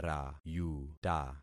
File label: "rayuta", concat syllables; Affixing experiment